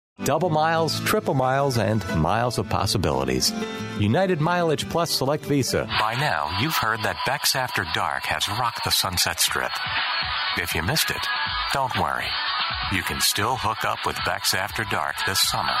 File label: Commercial Male